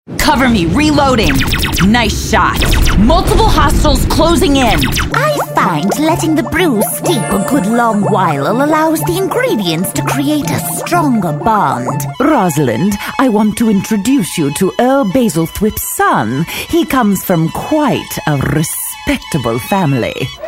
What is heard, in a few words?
animation female